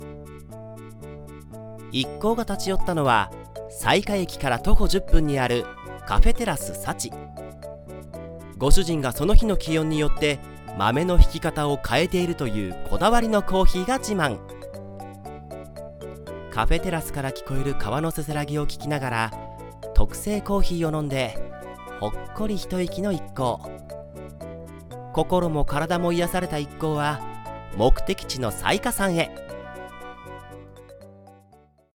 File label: Narration